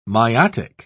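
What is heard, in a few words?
"miotic", British English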